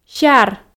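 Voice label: "сяр" Komi, female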